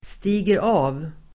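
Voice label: "stiger av", Swedish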